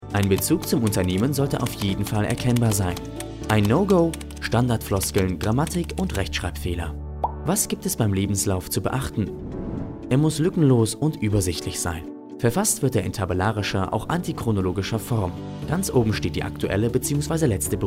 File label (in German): Erklärfilm